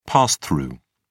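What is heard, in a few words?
"pass through", British English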